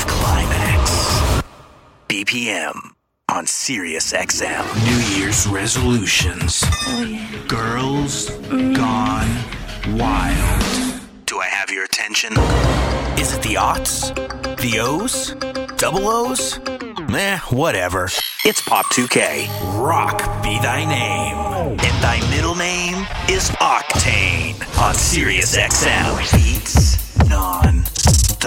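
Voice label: Imaging Male, Hip, Contemporary, Real, Radio Imaging; Commercial Voiceover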